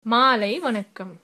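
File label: good evening, tamil, female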